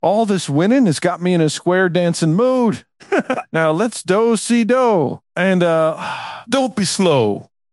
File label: happy, speech; fertig classic games